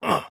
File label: Batman A Hurt